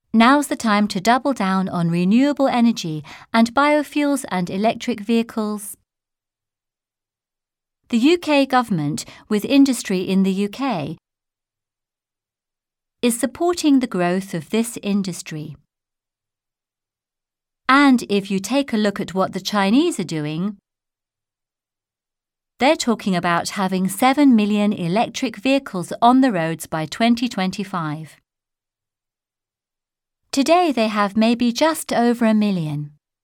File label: news, british english